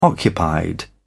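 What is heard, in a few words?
"occupied", British English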